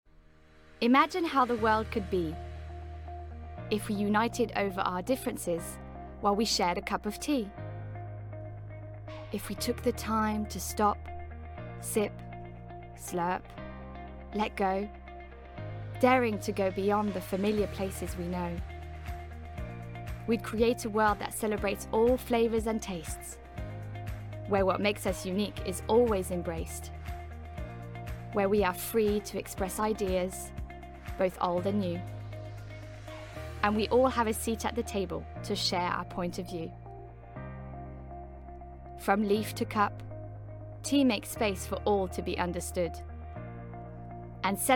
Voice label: Tea Brand English with music; T2